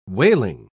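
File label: "waling", British English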